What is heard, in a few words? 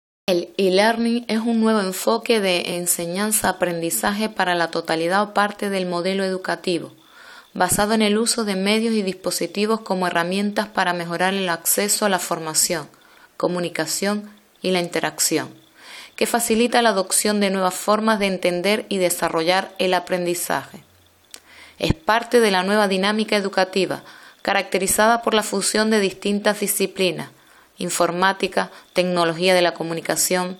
Elearning